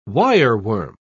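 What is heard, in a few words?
"wireworm", English, British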